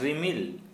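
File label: "cloudy", Santali, male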